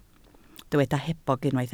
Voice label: "hebog", Welsh